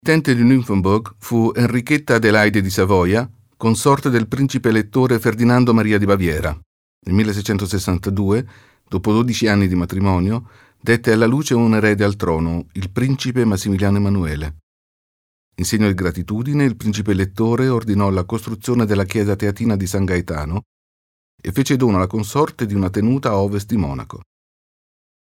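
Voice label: Italian, Kommentar Neutral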